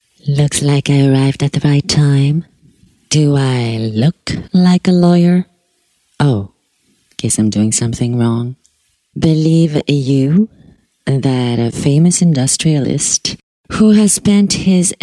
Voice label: English